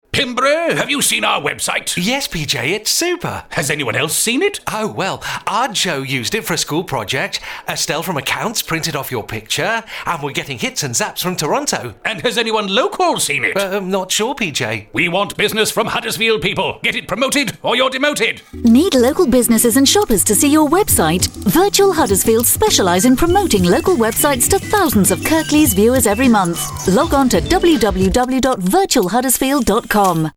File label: radio ad